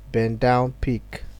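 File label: "bendownpick", Nigerian Pidgin, male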